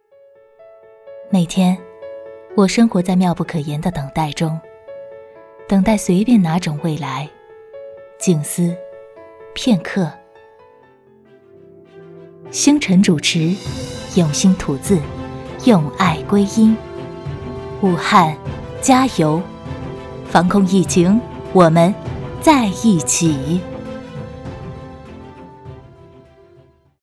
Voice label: 宣传; 星辰宣传1

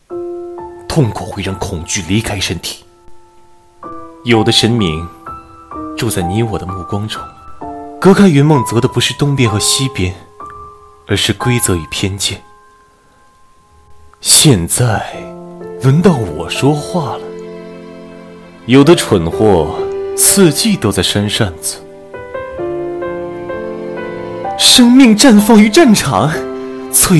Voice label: 多角色; 王者荣耀

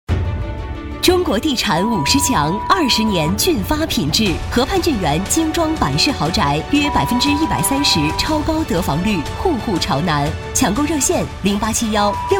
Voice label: 女; 俊发河畔女成品

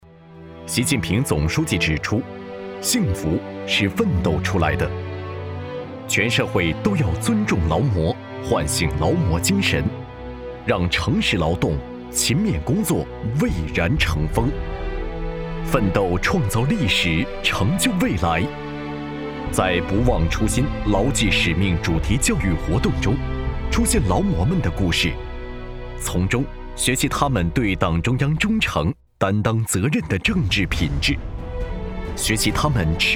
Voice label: 大气恢弘宣传片政府汇报

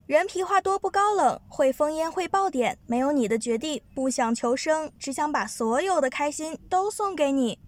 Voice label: 陪玩语音

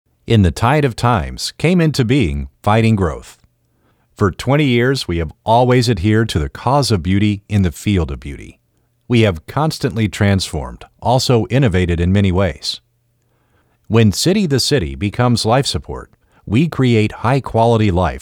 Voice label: 外籍英男市场宣传配音

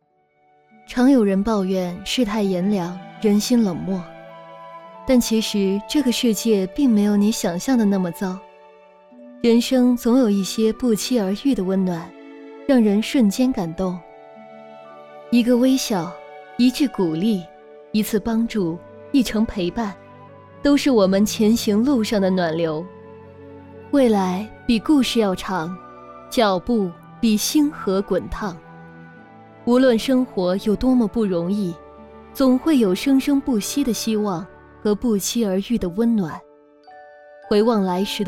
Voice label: 走心知性; 展望未来

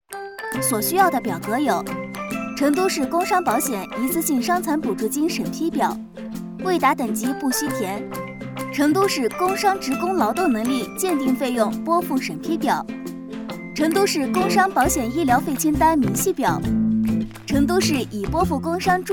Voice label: 旁白

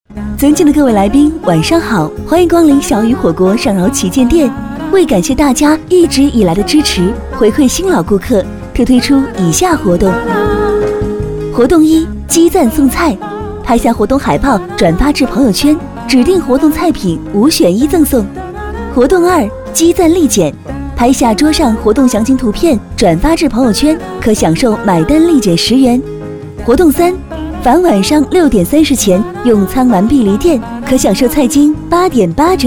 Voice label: 广告

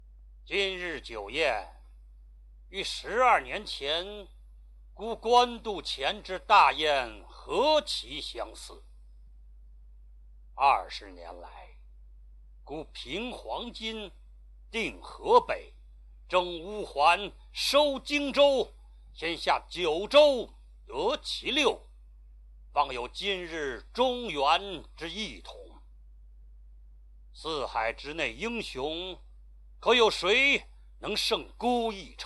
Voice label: 独白; 曹操收洛阳

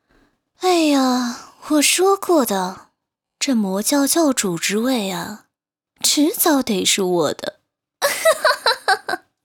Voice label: 妖娆御姐

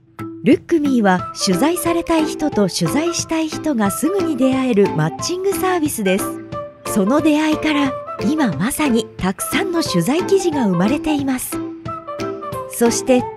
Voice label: 日语 女声

